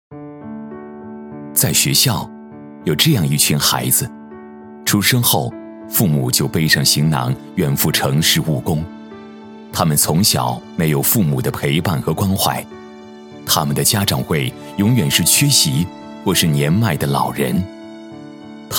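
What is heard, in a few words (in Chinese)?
男, 温情感动, 留守儿童, 普通话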